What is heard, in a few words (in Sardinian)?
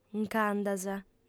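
"nc'andas", female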